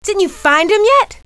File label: scold, female; kingpin loc; bambi